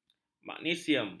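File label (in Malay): "magnesium", Malay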